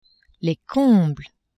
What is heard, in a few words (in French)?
"combles", French; maison